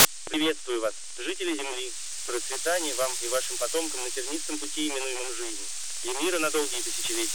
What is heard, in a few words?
male